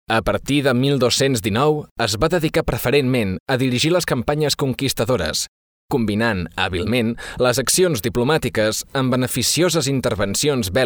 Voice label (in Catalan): locutor catalan voiceover